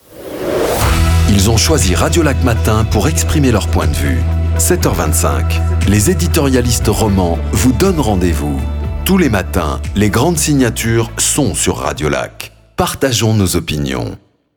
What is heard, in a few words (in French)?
Radio Promo Édito Signature